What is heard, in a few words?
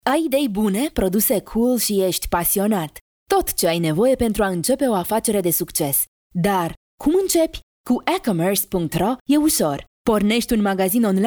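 Romanian female service presentation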